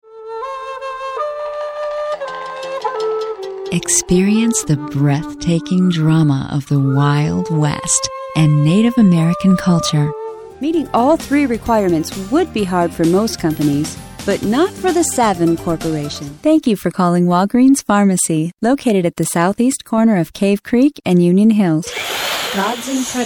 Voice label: English, females

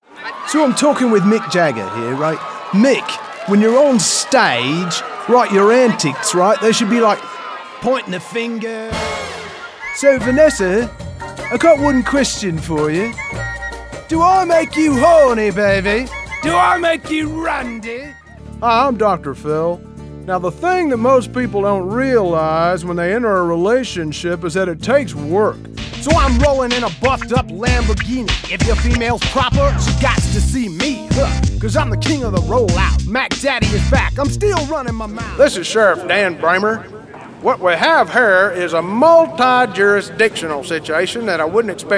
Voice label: English, english males

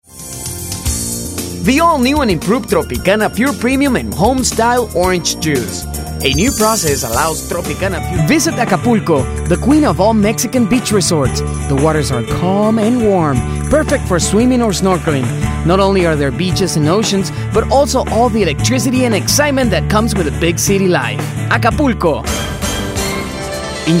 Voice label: English, english males

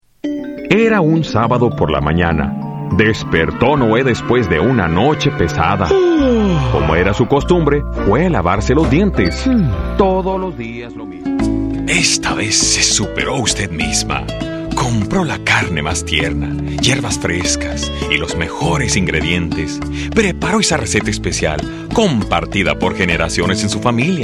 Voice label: Spanish, males

